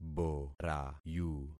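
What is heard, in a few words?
"borayu", concatenated syllables; Affixing experiment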